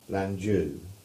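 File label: "Lanjew" English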